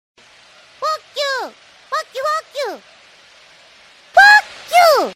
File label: angry anime girl saying fuck you